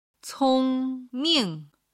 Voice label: "cong1ming5", Chinese; HSK3級

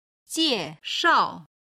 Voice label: "jie4shao4", Chinese

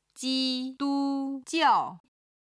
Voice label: "ji1du1jiao4", chinese

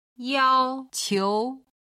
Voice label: "yao1qiu2", chinese

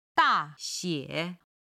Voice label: "da4xie3", Chinese

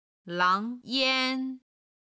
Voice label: "lang2 yan1", Chinese

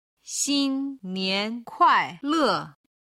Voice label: "xin nian kuai le", Chinese

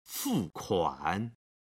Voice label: "fu kuan", Chinese

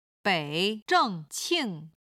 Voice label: "bei zheng qing" Chinese

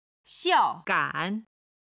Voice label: "xiao4gan3", chinese

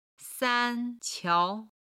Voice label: "san1 qiao2", Chinese

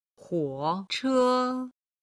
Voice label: "hou che", Chinese

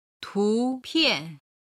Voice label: "tupian", chinese

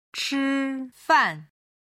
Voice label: "chifan", chinese

